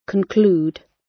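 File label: conclude, British; Language, linguistics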